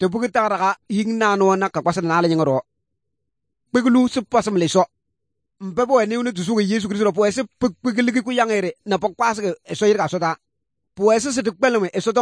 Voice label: good news, kabiye bible